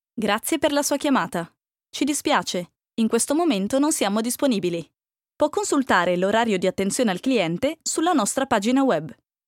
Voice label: italian ivr